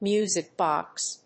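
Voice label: "music box", English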